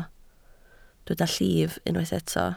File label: "llif", Welsh